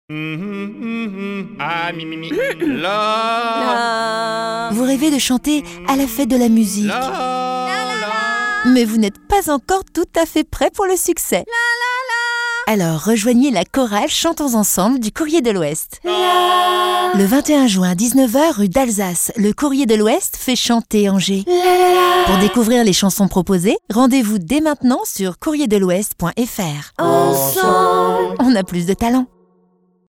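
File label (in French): souriante complice, voix off féminine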